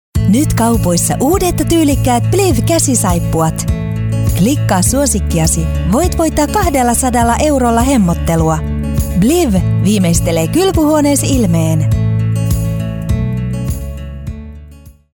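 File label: Finnish, female, explainer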